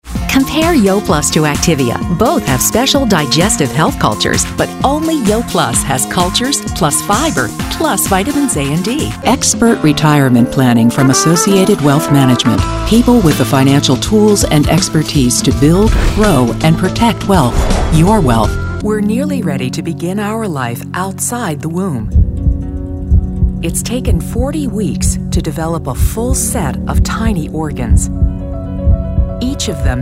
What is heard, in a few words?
women other composite